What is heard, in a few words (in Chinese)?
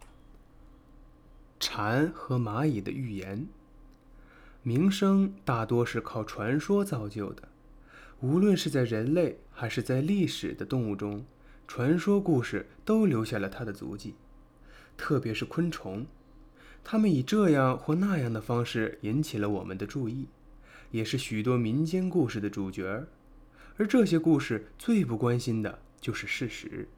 试音小说音轨